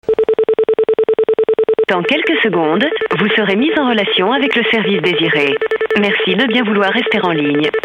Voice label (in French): Appel Audiotel